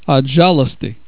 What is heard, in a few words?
"zhalosti", Russian